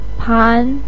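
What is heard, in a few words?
"pan2", Mandarin pinyin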